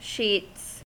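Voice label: "sheets", US English; pronunciations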